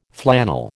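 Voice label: "flannel", American English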